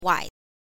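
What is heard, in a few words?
"wai", Mandarin Chinese, neutral tone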